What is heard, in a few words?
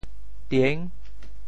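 "tieng6", Teochew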